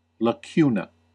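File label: "lacuna", American English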